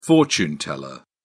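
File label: "fortune teller", English, British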